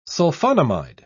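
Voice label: "sulfonamide", British English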